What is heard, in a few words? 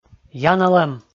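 "яналӧм", Komi, male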